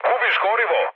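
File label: Serbian tank damaged mood med; War Thunder; speech srb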